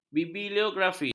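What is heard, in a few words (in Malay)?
"bibliografi", Malay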